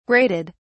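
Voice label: "grated", British English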